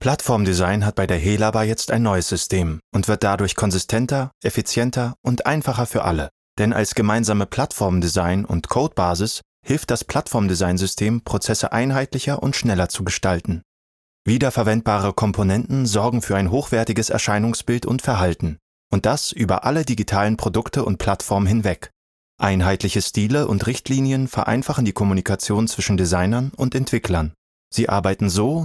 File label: image, German; Image - HeLaBa